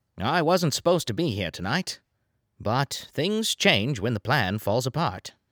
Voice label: Trans Atlantic Character

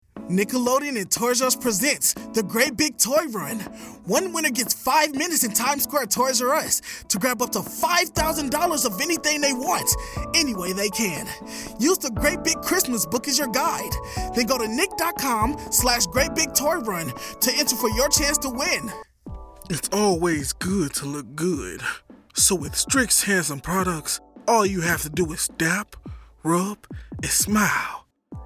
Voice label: commercial

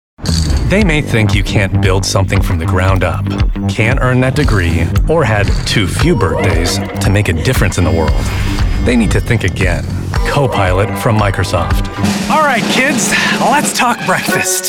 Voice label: Commercial

